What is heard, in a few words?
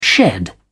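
"shed", British English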